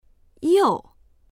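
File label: "you4", Mandarin Chinese; 又; また（…した）; dictionary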